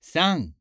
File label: Japanese Number